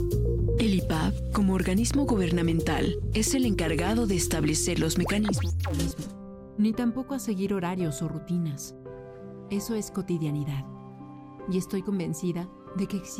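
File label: Latin American Spanish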